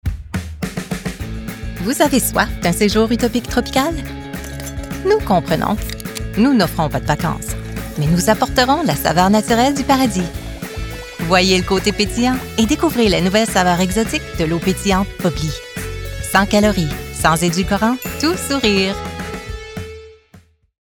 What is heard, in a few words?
Commercial, French; Bubly